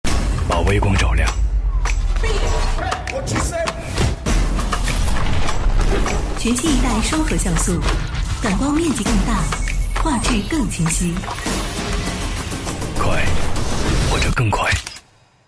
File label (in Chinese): 男 科技感 品牌广告